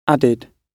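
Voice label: "added", British English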